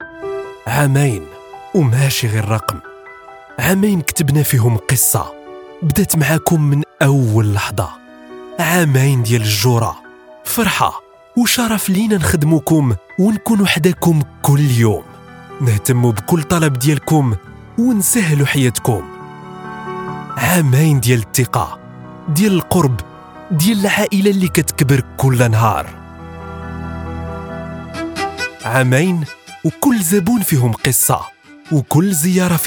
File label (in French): corporate